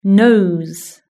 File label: "nose", British English